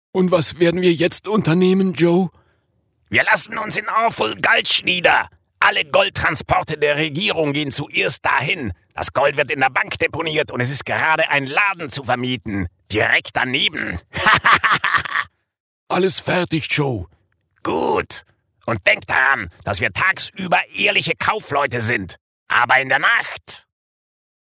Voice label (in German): Comicstimmen